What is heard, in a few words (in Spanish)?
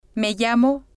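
me llamo, Spanish, female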